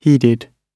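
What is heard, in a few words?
"heeded", British English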